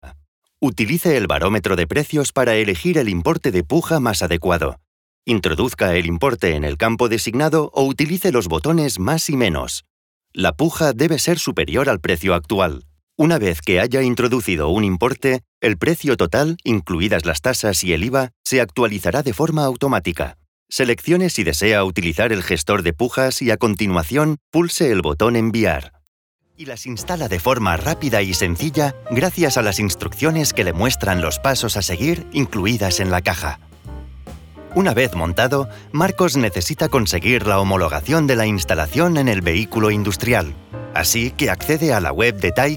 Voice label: es spanish elearning, male, spansk-europa

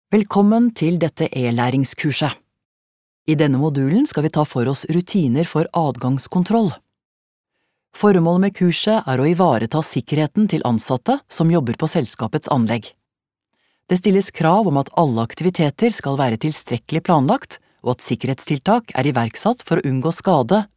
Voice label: no elearning

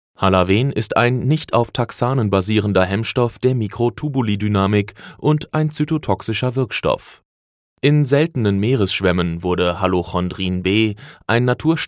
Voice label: de medical info

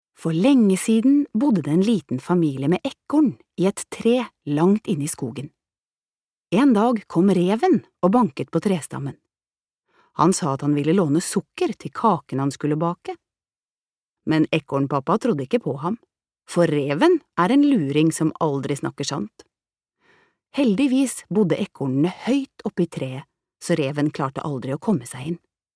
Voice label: no childrens book

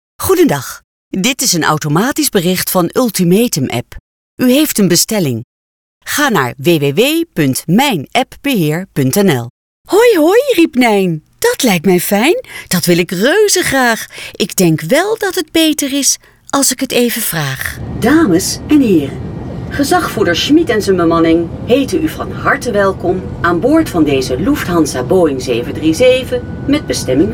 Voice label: nl neutral, nederlandsk kvinne

